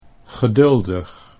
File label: "geduldig", Dutch